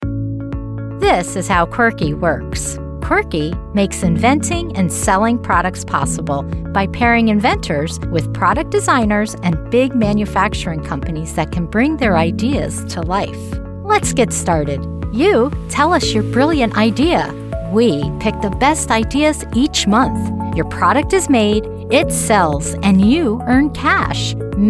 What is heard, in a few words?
Corporate Narration